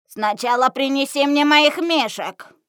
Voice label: granny error; npc